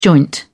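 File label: "joint", British English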